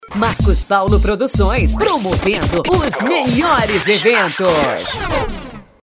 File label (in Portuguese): LOCUTORA